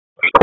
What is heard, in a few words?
Raleigh Police Northeast District Tac, p25